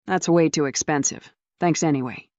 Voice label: fem